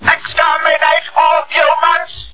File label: Dalek Exterminate all humans